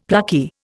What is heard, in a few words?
"plucky", Indian; Dictionary